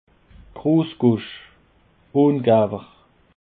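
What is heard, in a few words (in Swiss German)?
"grossgosch", Alsatian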